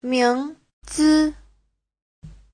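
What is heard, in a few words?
"míngzi", Chinese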